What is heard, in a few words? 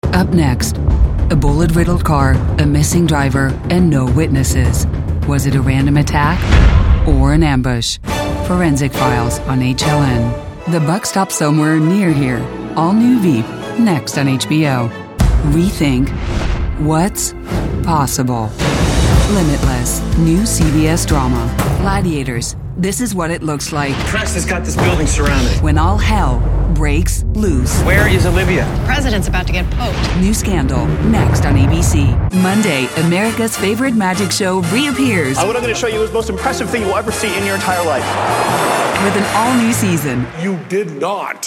Promo